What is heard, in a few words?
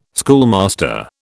"schoolmaster", British